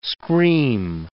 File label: "scream", English